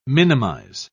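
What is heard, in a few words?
"minimise", British English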